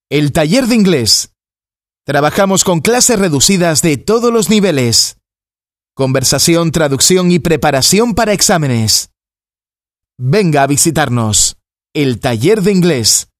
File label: locutor canario spanish canarian voiceover